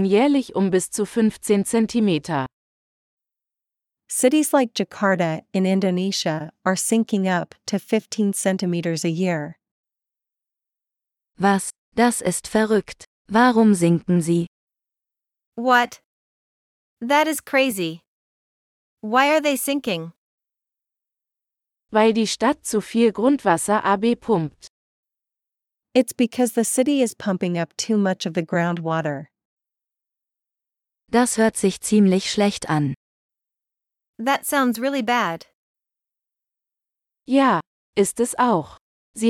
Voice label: Water as a Resource - Dialogue (with translations), German; learn-german; topic-course-sustainability-and-climate-change